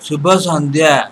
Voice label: good evening odia